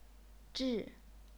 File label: "zhi4", chinese pinyin; 雉; 秩; 稚; 质; 豸; 膣; 觯; 炙; 痔; 痣; 忮; 滞; 治; 窒; 识; 陟; 骘; 彘